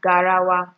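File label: "garawa", Nigerian Pidgin, female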